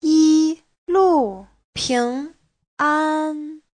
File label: "yi1lu4ping2an1", Chinese; 一路平安 : thượng lộ bình an; Giáo trình Hán ngữ